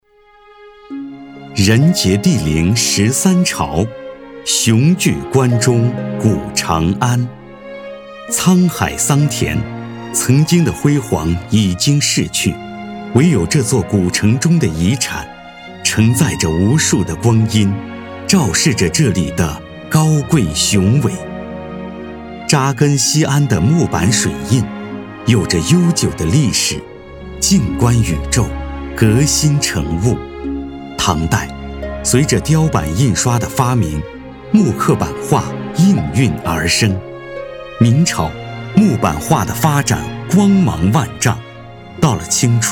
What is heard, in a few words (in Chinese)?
非遗纪录片